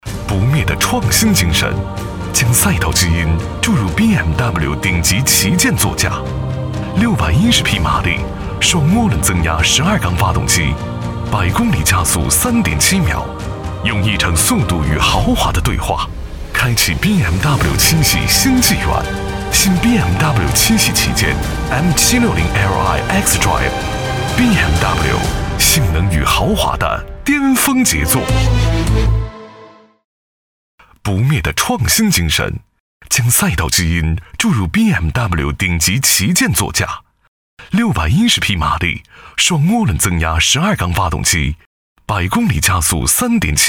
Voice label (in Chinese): 男 广告大气